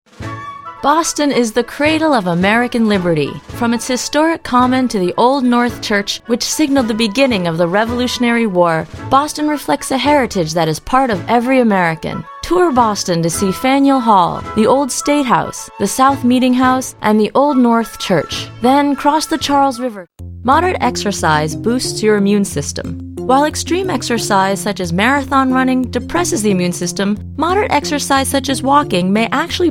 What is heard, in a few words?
narration